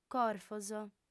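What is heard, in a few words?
"corfos", Sardinian, female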